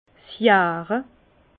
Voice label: "jahre", Bas Rhin; vin; fermentation

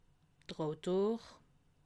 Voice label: "autor", alsace

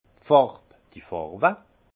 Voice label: "farwe", alsace; vin; couleurs

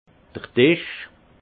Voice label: "disch", alsace; vin

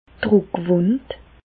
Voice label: "druckwund", Bas Rhin; medecine; escarres

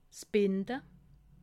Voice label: "binde", alsace

